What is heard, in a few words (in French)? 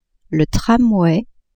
"tramway", french; transport